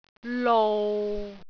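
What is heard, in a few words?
"lou6", Cantonese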